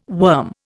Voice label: "worm", Indian